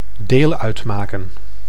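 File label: "deel uitmaken", Dutch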